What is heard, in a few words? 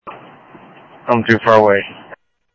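Sheriff Lake Patrol, scanner audio trunk; MCSO Lakes 1 SheriffLakePatro; ThompsonPeakScot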